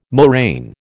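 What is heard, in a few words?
"moraine", American English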